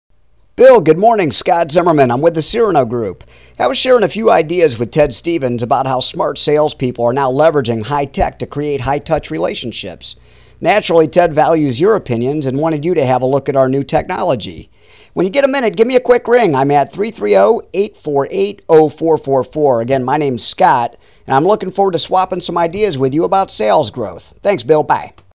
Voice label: Voicemail; Socializer